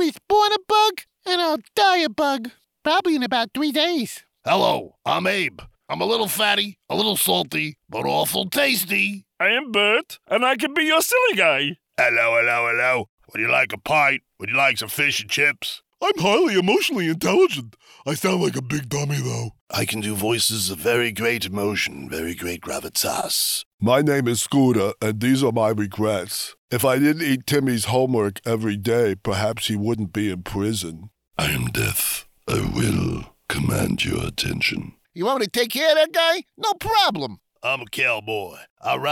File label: Animation